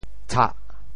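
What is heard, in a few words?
"tshah4", Teochew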